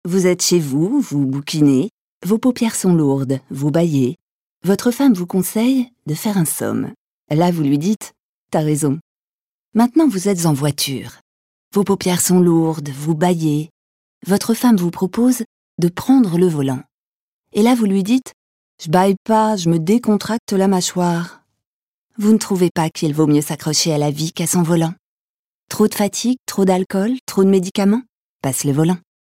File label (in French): pub SECURITE ROUTIERE complice convaincante